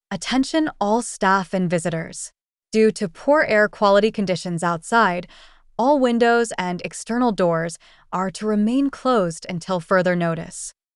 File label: air Quality Alert, voice announcement; Healthcare CX